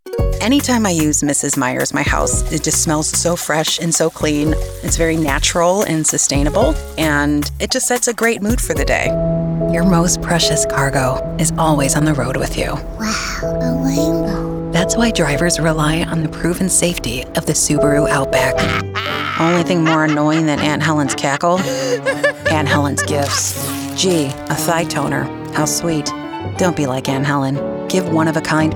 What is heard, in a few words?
Commercial